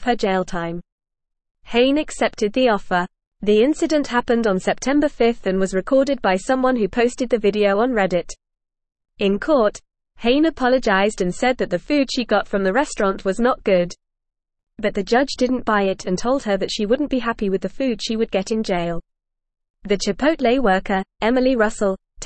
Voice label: Upper Intermediate FAST Reading, English; English Newsroom; Ohio Woman Sentenced to Fast Food Job for Assault